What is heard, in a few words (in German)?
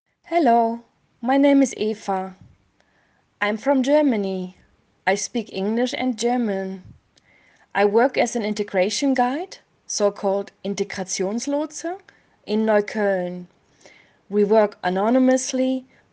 englisch